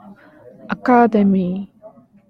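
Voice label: "academy", Japanese, female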